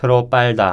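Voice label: fortis sound example, korean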